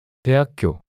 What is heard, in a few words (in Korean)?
"대학교" 단어 남자